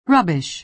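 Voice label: "rubbish", American English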